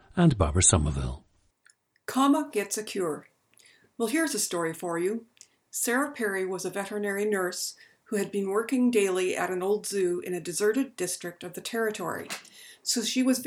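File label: ontario, International Dialects of English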